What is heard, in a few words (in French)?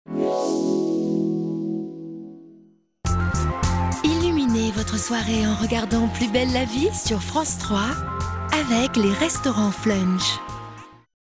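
Billboard TV Classe ligth, voix off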